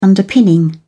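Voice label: "underpinning", English, British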